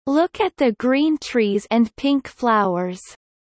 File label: low